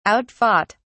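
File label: "outfought", British English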